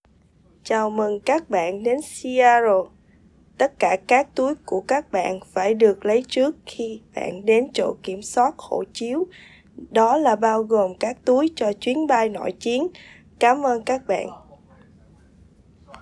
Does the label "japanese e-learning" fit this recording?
No